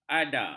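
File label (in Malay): "ada", Malay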